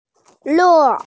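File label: "le4", Mandarin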